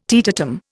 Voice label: "teetotum", Indian